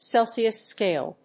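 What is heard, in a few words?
"Celsius scale", English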